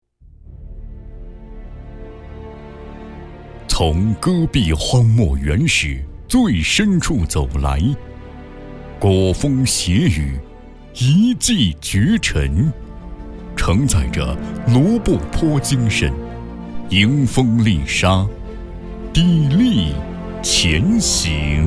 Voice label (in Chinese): 男 大气企业宣传片; 欣勤建设集团